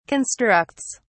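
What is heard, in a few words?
"constructs", British English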